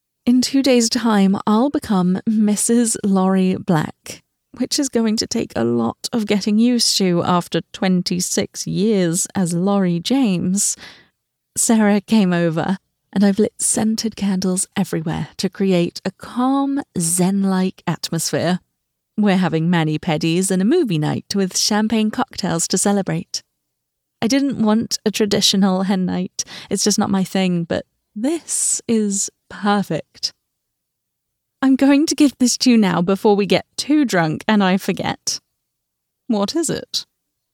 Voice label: audiobooks; British